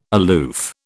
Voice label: "aloof", British